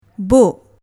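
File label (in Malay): "buk", Malay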